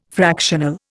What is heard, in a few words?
"fractional", Indian